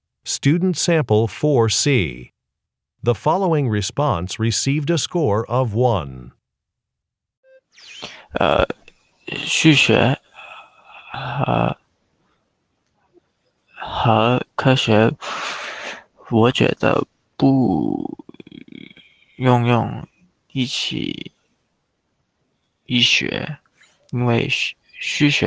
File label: chinese conversation